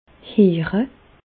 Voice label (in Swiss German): "heere", Bas Rhin; medecine; entendre